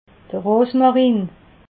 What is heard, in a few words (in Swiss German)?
"rosmarin", alsace